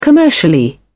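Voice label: "commercially", English, British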